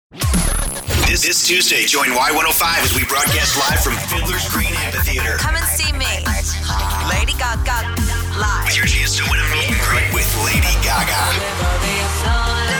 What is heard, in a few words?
IMAGING